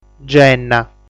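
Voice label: "Genna", Sardinian word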